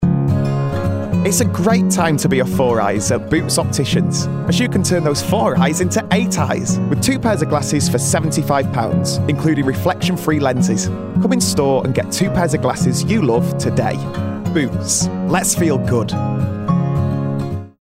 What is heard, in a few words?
COMMERCIAL Opticians; Boots